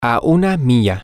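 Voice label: a mile away, Spanish, male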